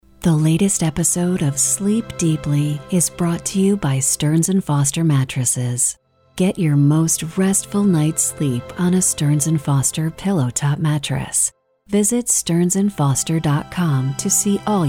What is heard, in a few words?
podcast